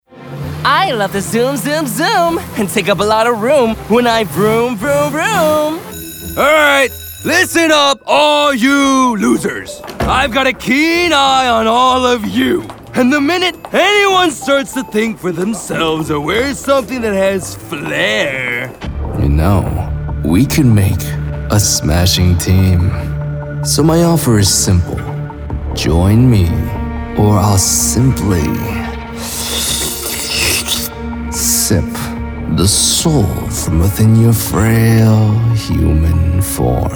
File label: animation demo